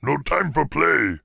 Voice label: Angry; warcraft3; Peon